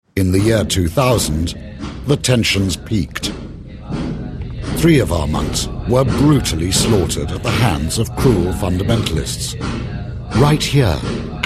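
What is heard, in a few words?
uk documentary, engelsk uk mann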